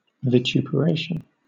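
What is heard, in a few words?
"vituperation", British English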